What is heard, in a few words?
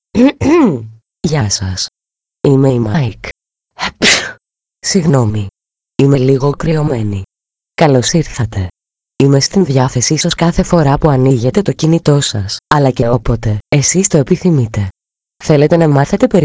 audio promo; MAIC